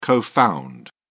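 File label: "co found", English, British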